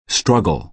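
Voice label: "struggle", American English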